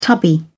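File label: "tubby", British English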